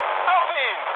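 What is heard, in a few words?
German attack position mood med, speech; War Thunder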